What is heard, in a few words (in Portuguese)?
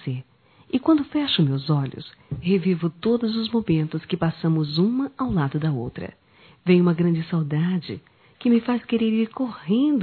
filha mãe distante, telemensagens, Dia das Mães; Telemensagens 2017